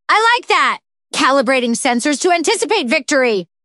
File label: happy; nadia; fertig classic games